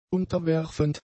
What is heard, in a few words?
"unterwerfend", German; adjectives